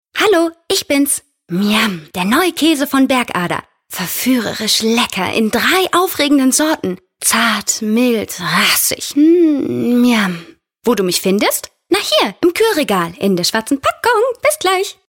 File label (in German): Ladenfunk Spot; bergader; myamm